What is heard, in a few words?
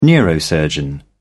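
"neurosurgeon", British English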